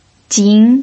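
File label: "jin" second tone, pinyin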